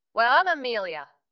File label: VoiceActing es